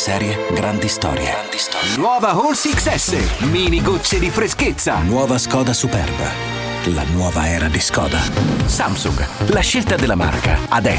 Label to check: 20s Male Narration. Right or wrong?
Wrong